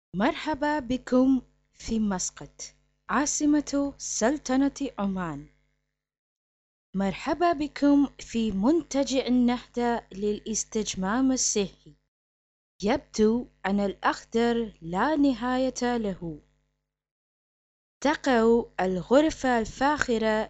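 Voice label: arab female voice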